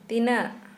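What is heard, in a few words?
Santali, female, how many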